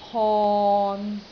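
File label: "hon6", Cantonese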